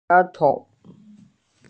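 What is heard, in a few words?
"she2tou5", Mandarin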